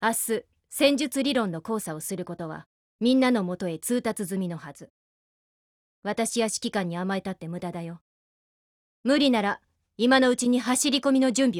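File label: DIALOGUE, Japanese; girlsfrontline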